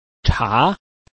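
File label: "cha", Chinese, tone 2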